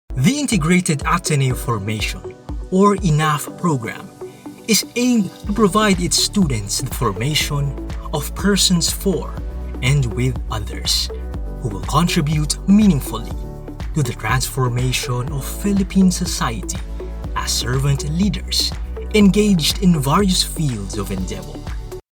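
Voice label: Tagalog, male, English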